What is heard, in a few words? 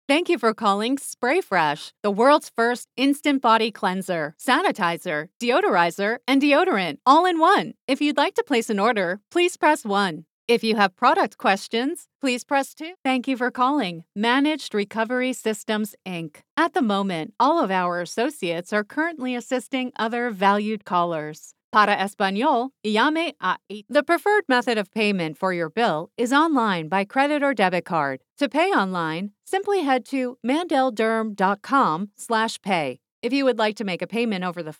IVR VO